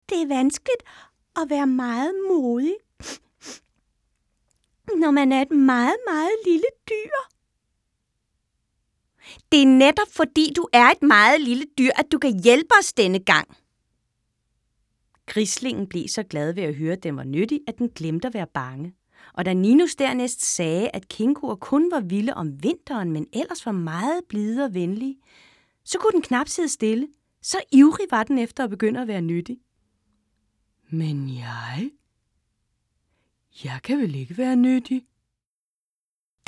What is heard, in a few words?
dubbing; Winnie The Poo